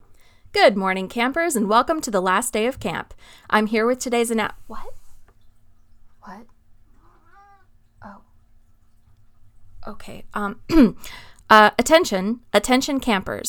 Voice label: announcements